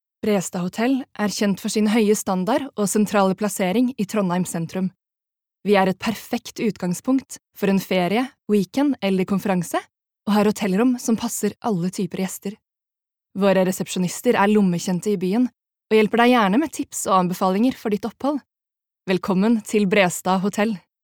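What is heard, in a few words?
Norwegian informative, norwegian female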